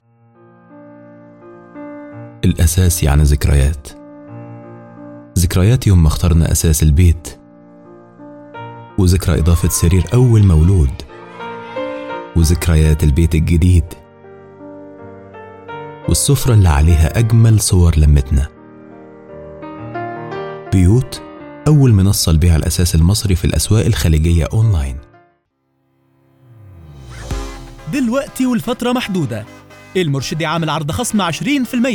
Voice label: Commercial

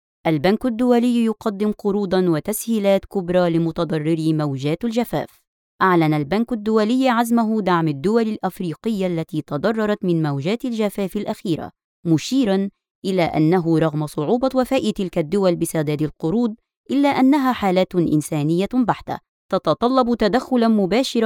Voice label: news

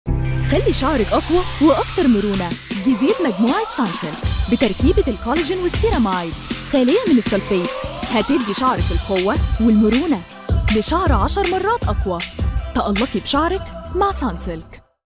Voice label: TVC commercial, Arabic; Sunsilk

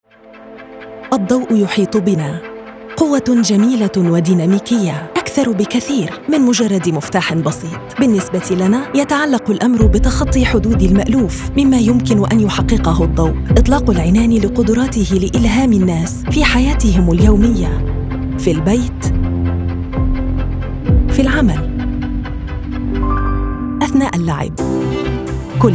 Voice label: MSA Commercial; LedVance

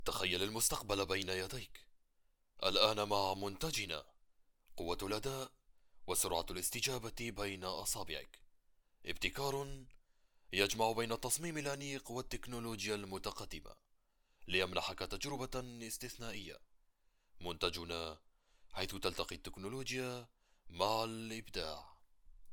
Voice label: Online ad

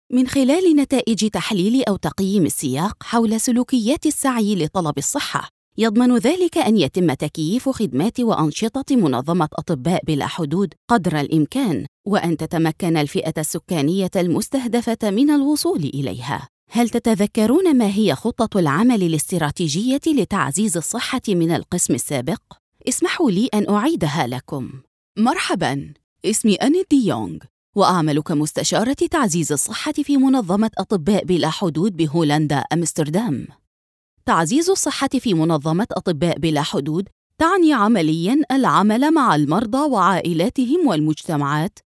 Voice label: e-learning

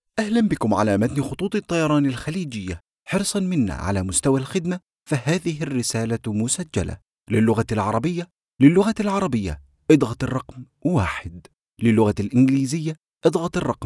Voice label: Ivr, Arabic

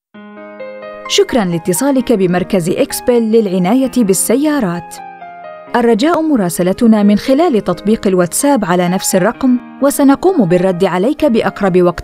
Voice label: IVR

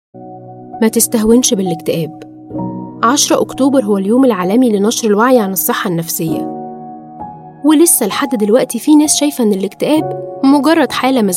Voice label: Commercial

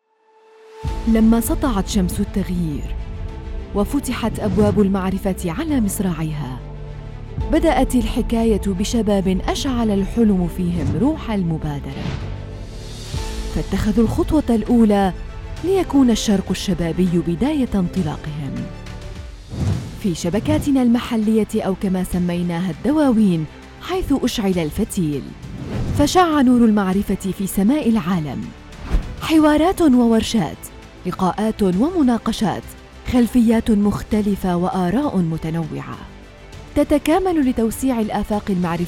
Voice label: Formal Arabic

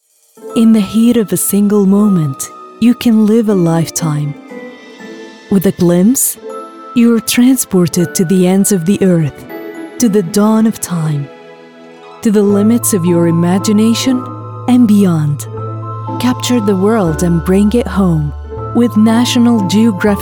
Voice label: English american accent

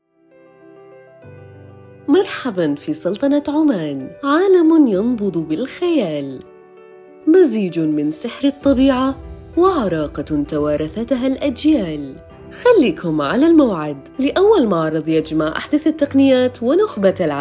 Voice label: Big Brands Expo Arabic; COSMO

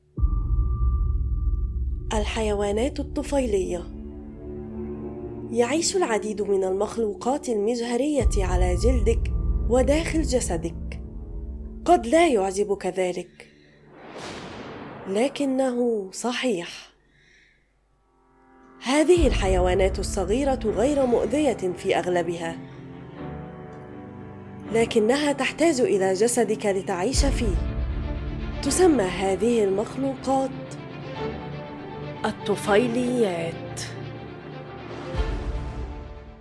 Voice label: epic hollywood trailer; طفيليات

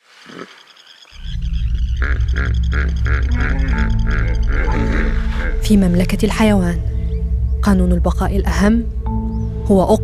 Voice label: AR Classical Arabic Hippo Documentary

